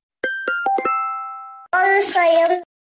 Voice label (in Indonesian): nada notifikasi haallo sayang